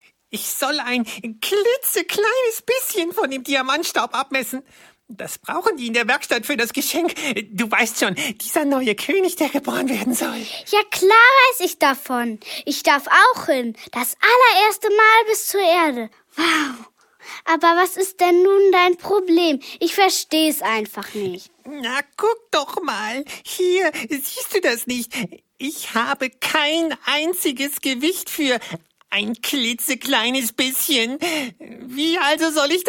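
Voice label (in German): Hörspielszene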